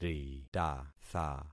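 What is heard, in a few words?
"ritatha", concatenated syllables; Affixing experiment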